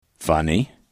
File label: "funny", english